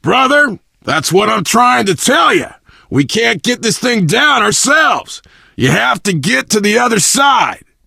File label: initial meeting, survivor voice biker